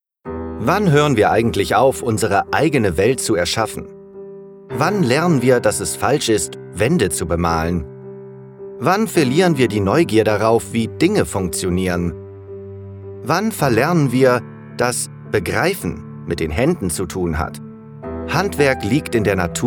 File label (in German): Werbung Mittelstand